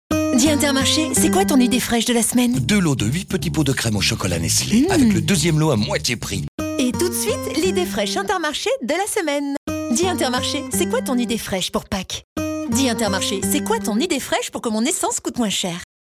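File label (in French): questions enthousiastes, comédienne voix off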